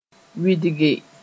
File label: "wɩdge", Mooré